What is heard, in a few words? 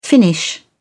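"finish up", British English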